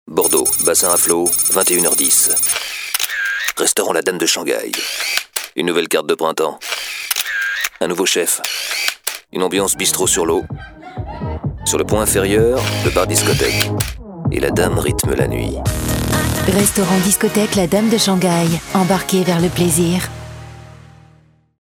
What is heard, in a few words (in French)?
Pub radio; DAME DE SHANGHAI Bordeaux